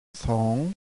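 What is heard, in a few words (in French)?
"saam", ton montant, thailandaise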